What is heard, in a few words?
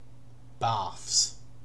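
"barfs", British English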